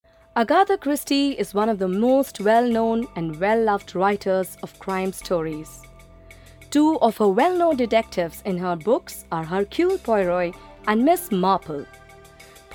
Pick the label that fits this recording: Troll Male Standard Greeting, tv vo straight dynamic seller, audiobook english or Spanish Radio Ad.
audiobook english